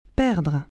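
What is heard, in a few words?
"perdre", French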